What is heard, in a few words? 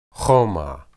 "humah", Hebrew